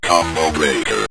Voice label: combo Breaker, Synth Voice; Kenney's Sound Pack

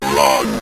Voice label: "wrong", Synth Voice; Kenney's Sound Pack

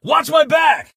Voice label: watch my back, male; Kenney's Sound Pack; Voiceover

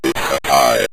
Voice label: its A Tie, Synth Voice; Kenney's Sound Pack

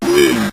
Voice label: "win", synth voice; Kenney's Sound Pack

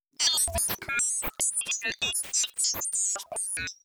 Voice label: Female Alien Robot - Long, Alien Voices; Gage Freeman

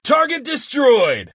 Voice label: target destroyed, male; Kenney's Sound Pack; Voiceover

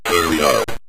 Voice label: hurry Up, Synth Voice; Kenney's Sound Pack

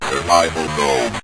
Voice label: survival Mode, Synth Voice; Kenney's Sound Pack

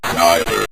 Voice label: "sniper", Synth Voice; Kenney's Sound Pack

